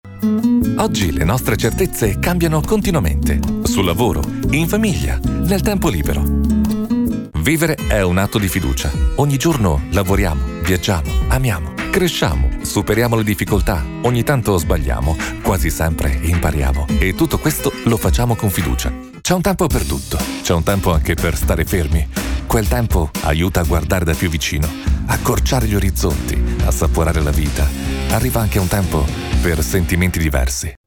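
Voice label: Italian male Commercial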